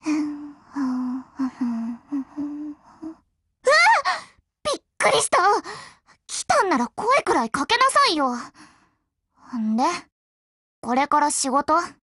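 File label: HELLO Japanese, Voice; GF Alarm; Delisle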